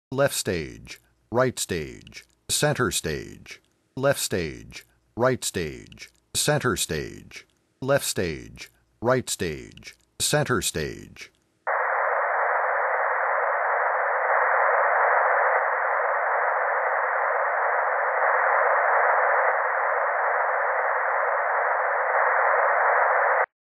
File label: Left Right Center, voice pink